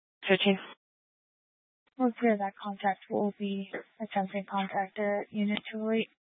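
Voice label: Police 1 Dispatch, Scanner audio recording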